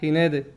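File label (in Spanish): "jinete", Cuba, Havanna; jinete [hiˈnede]; Kuba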